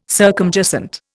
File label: "circumjacent", Indian